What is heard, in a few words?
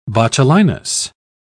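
"botulinus", British English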